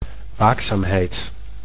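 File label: "waakzaamheid", Dutch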